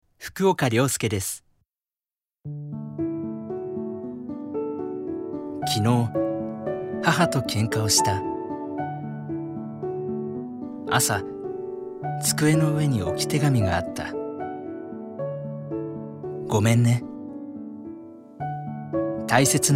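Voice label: commercial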